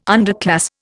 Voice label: "underclass", Indian